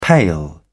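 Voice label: "pale", British English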